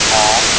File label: speech + noise